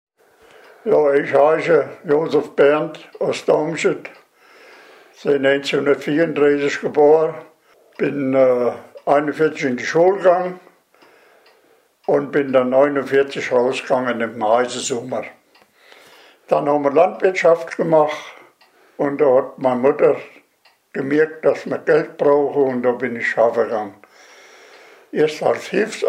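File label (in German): stellt sich vor, Hunsrück; Damscheid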